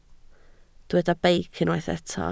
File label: "beic", Welsh